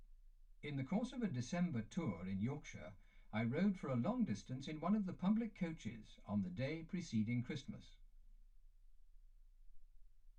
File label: male speech english